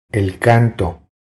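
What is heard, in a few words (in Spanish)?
"El canto", Spanish; DELE A2 vocabulary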